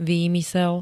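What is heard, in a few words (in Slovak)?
"výmysel", Slovak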